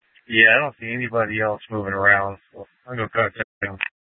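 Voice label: Mesa Police Department Superstition Dispatch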